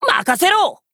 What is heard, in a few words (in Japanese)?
VO jp